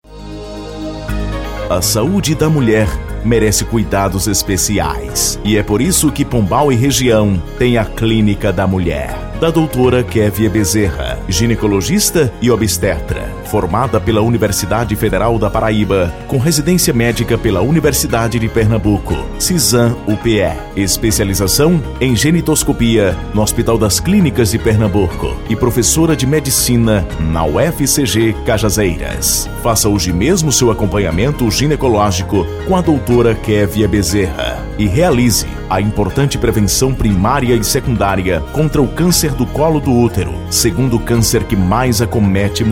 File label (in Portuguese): locutor chamada jornal da manha; spot dra kevia bezerra